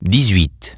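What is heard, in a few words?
"eighteen", English; iOS 4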